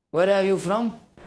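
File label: Voice Acting Spanish Female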